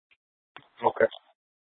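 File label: police department dispatch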